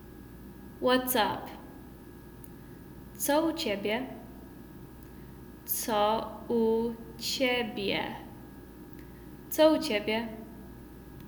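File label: Whats Up, Polish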